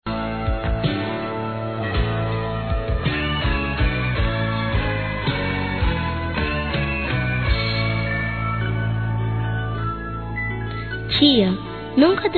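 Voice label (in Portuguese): MENSAGEM PROFESSORA, Masculino; Telemensagens; Telemensagens 2017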